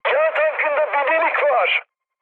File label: damaged oil, mood high, speech tur; War Thunder